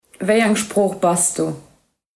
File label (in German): luxemburgisch